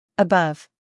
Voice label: "above", English, US, female; 1000 hours

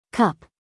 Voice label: "cup" us female; everyone-can-use-english

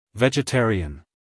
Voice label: "vegetarian" us male; everyone-can-use-english

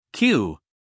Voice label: q, us guy; sounds-of-american-english; everyone-can-use-english

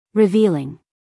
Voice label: "revealing" us female; everyone-can-use-english

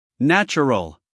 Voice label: "natural", US male; 1000 hours

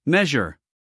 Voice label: "measure", US male

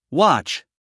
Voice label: "watch", us male; 1000 hours

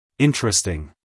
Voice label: "interesting" us male; everyone-can-use-english

